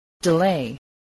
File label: "delay", English